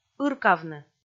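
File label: "ыркавны", Komi, female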